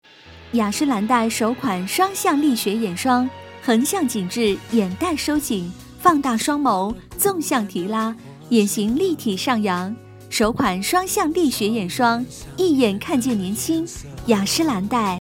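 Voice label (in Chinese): 国语 女声 国语广告 积极向上 亲切甜美; 雅诗兰黛化妆品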